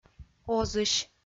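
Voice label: "озысь" Komi-Zyrian, female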